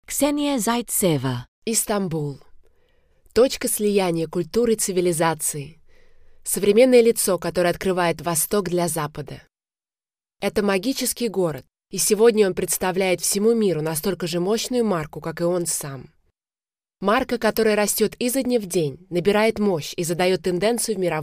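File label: narration, Russian, English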